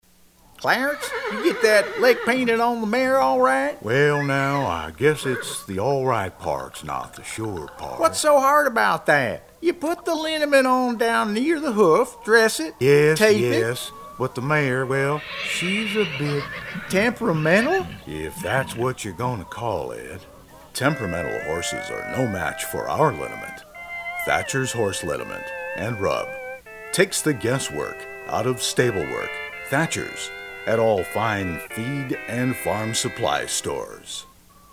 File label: Commercial Character; voiceovers